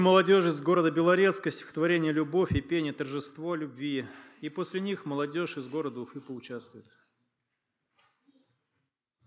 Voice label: Ведуший; Молодёжное общение г.Давлеканово

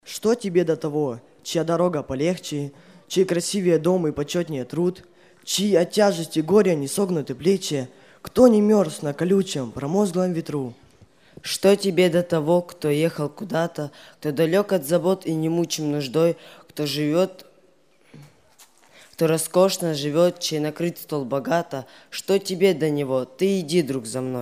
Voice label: Декламация; 2011 Апшеронск, Подростковый лагерь

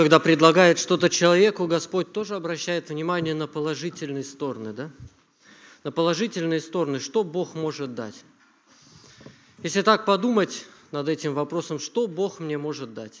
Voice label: Ведущий; Молодёжное общение г.Давлеканово

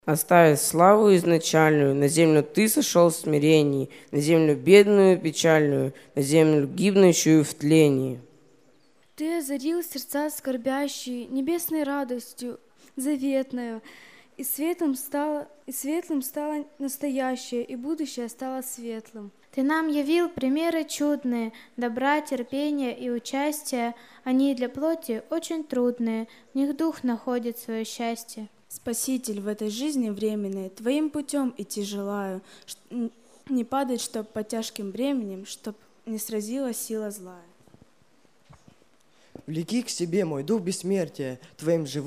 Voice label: Декламация; 2011 Апшеронск, Подростковый лагерь

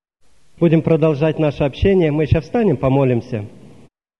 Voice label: Призыв к молитве

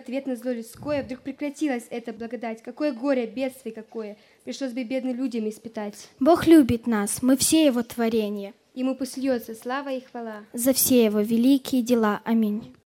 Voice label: декламация Великий Бог; 2016 Апшеронск, Подростковый лагерь

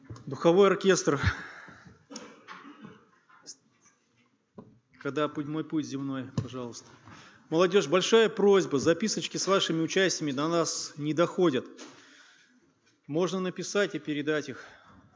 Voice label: Ведуший; Молодёжное общение г.Давлеканово

